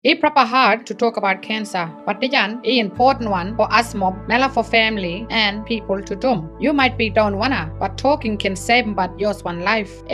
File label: Kriol; Yarn-for-Life